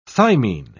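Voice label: "thymine", British English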